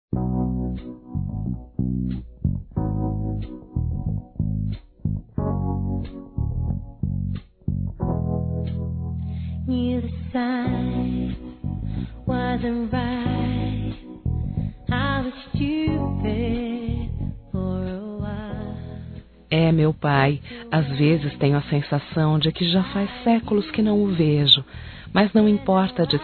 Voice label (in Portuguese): Aniversário Pai Distante Feminino; Aniversários; Aniv Familiar